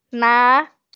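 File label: "na2", Mandarin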